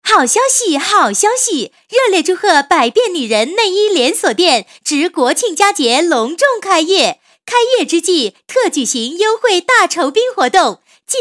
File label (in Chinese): 女 促销, 国语女声配音; 百变内衣成品